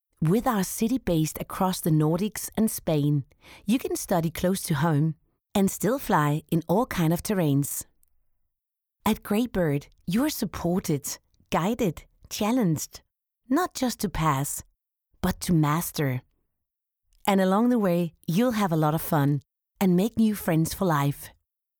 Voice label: eng corporate

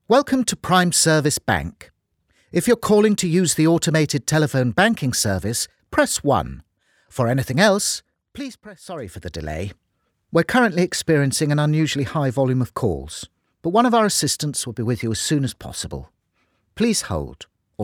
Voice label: IVR no slate

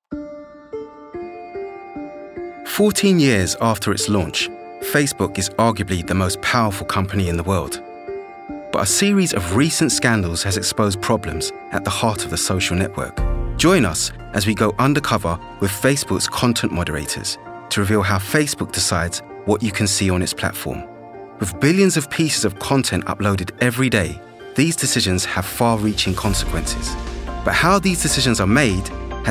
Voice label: narrative

